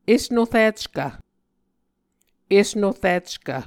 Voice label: "esnorickv", Muscogee